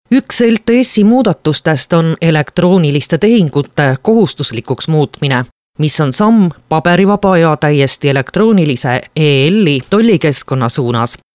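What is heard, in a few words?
estonian female narration; voice demos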